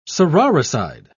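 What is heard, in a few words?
"sororicide", British English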